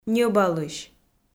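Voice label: "ньӧбалысь", Komi, female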